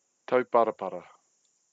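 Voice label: "tauparapara", Māori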